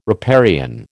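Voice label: "riparian", American English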